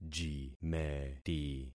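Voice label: "jimeti", concatenated syllables; Affixing experiment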